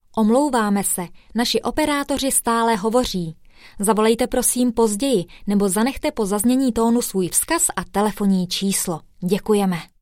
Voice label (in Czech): zensky voiceover; profesionální ženský voiceover